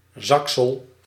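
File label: "zaksel", Dutch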